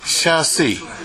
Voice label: "shasue", minami yamagata

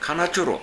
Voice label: "kanachoro", minami yamagata

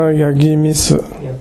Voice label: "yagimisu", 南山形

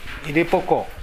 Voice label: "irepoko", minami yamagata

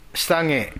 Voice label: "sitage", minami yamagata

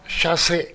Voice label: "shasue", minami yamagata

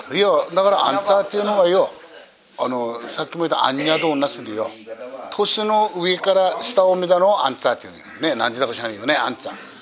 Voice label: antua, minami yamagata

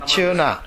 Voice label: "chuuna", minami yamagata

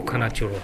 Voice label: "kanachoro", minami yamagata

